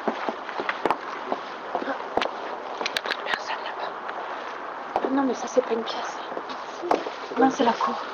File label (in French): EVP; chillon; salle 34